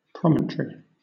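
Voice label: "promontory", British English; pronunciations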